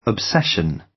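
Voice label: "obsession", British; Design and innovation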